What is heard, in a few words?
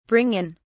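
"bring in", British English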